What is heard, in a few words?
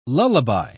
"lullaby", pronounce